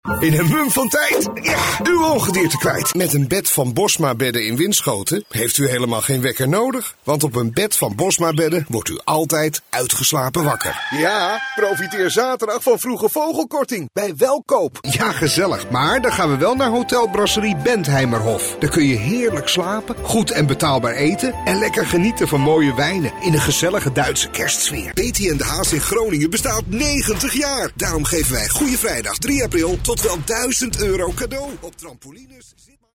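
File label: Dutch, male, voice over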